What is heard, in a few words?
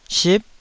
"sip9", Taiwanese syllable